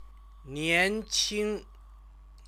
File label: "nianqing", Chinese; 年 轻; young; stative verb